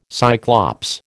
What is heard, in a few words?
"Cyclops", American